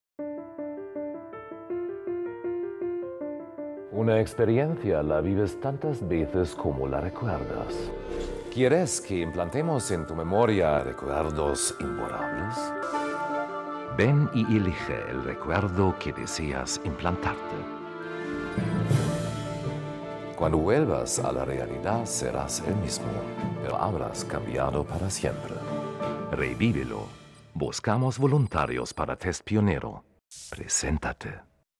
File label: SPANISH scientific informative seductive brainwashing; THE PERFECT SCIENTIST - SPANISH - scientific-informative-seductive-serious